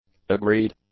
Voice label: "agreed", English; adjectives